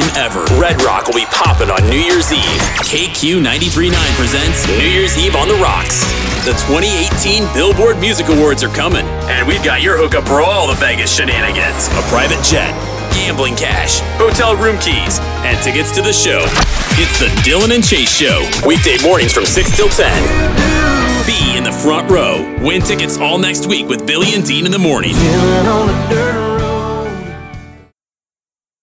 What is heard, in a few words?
Country, Voiceovers